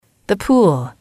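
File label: "the pool", English, female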